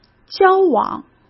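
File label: "交往", Mandarin Chinese; chinese-flashcards; hsk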